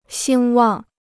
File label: "兴旺", Chinese